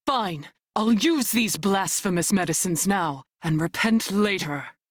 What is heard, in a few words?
Crew Heal Self, Female; Dialog; en; Warframe; Railjack Crew; New Loka